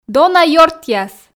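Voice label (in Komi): "дона ёртъяс", Komi, female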